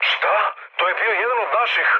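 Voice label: Serbian player killed ally mood low; speech; War Thunder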